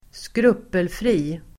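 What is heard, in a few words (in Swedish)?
"skrupelfri", Swedish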